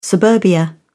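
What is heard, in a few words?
"suburbia", British English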